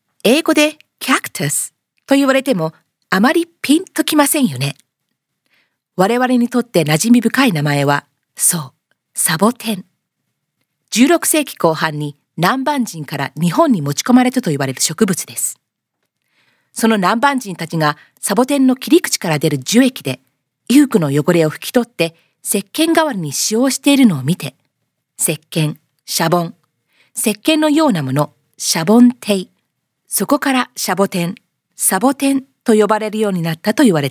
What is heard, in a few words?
corporate reel japanese